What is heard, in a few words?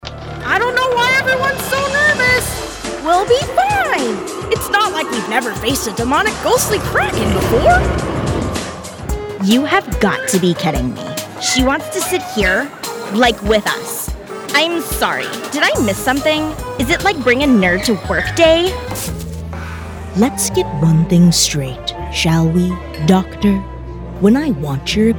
Animation, Professional Female Voice Over Actor